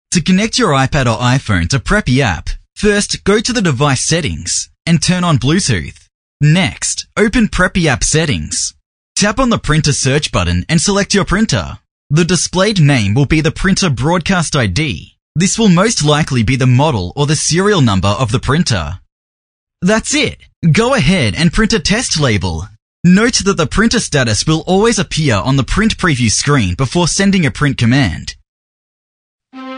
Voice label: English AU